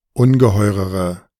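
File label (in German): "ungeheurere", German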